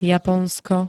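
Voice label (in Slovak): "Japonsko", Slovak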